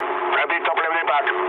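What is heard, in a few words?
tank damaged, mood low, Russian; War Thunder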